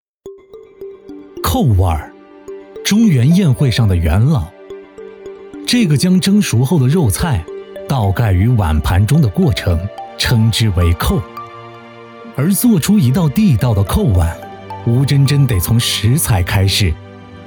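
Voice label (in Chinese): 纪录片扣碗; 舌尖扣碗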